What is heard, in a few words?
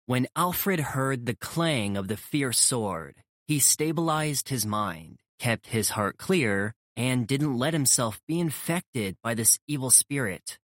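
audiobook sample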